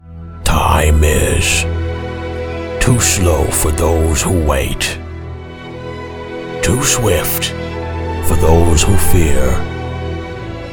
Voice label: narrative